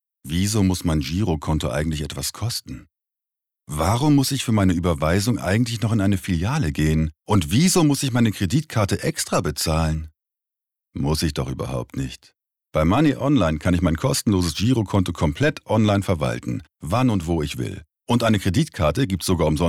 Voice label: Werbung Girokonto; Muttersprache Deutsch

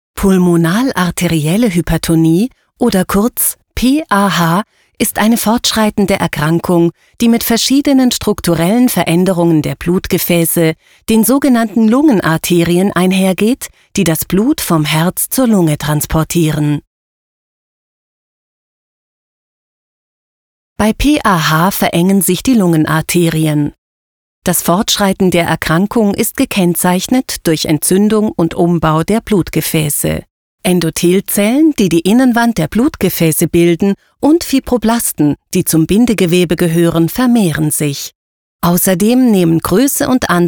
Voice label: sprachaufnahme de

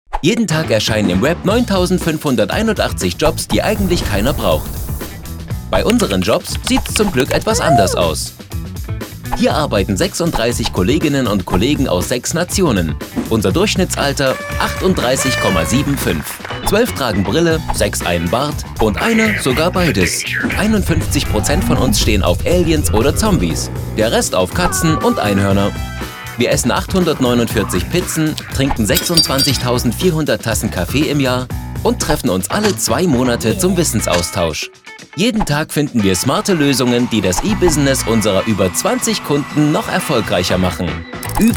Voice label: erklaerfilm recruiting

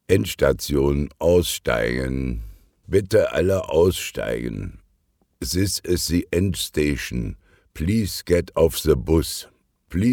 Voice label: Berliner Busfahrer

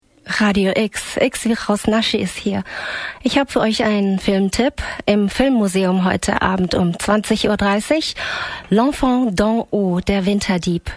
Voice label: radio kurze anmoderation; Sprecher in Frankfurt a. M.

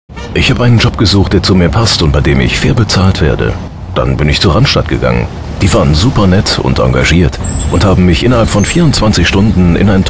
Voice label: funkspot image; randstad

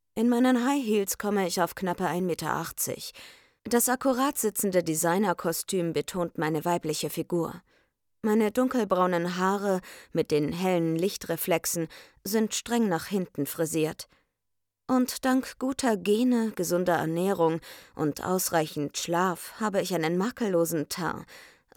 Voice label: homestudio hoerbuch

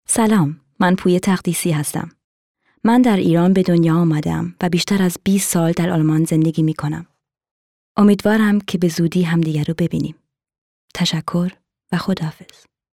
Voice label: Persisch

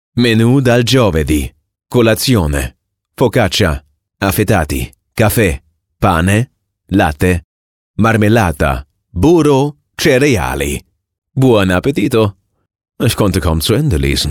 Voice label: hoerbuch; faz

